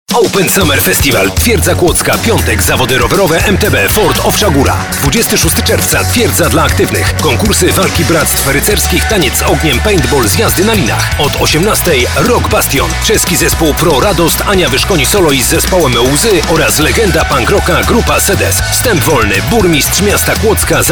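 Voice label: Polish, male, commercial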